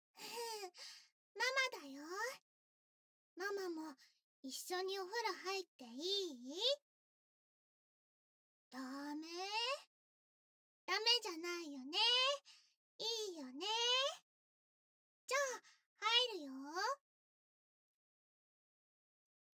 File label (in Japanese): おててで優しくいい子いい子(左右反転効果音等なし); 菜々子ママ; 癒し系専属ナースのゆいちゃんと菜々子ママに甘々&バブみで包まれちゃうバイノーラル